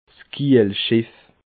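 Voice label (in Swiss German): "kiehlschiff", Alsatian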